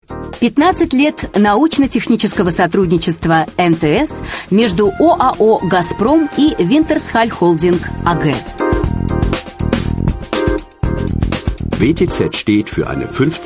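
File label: Russian, female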